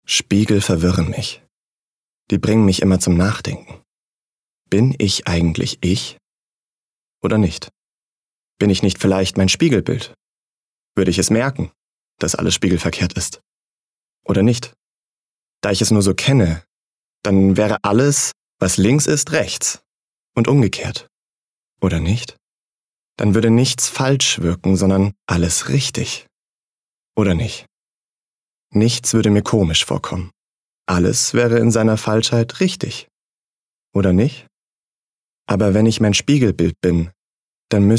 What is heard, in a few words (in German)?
Innerer Monolog